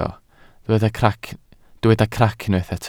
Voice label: "crac", welsh

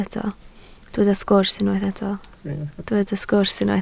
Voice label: "sgwrs", Welsh

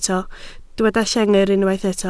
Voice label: "llengar", Welsh

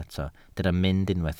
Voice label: "mynd", Welsh

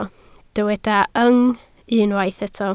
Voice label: "yng", Welsh

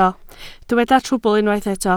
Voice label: "trwbl", Welsh

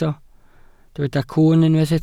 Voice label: "cwwn", Welsh

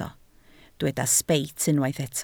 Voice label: "sbeit", Welsh

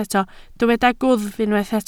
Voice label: "gwddf", Welsh

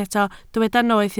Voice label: "noeth", Welsh

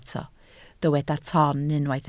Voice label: "ton", Welsh

